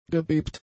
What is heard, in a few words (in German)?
"gebebt", German; adjectives